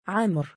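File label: "3amr" male, Arabic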